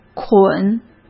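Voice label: "捆", Mandarin Chinese; chinese-flashcards; hsk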